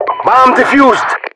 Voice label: announcer bomb defused